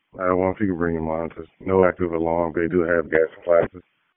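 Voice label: Fire Department HQ Dispatch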